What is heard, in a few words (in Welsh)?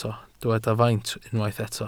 "faint", Welsh